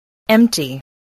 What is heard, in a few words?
"empty", spelling